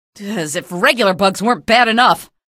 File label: alert antlions, female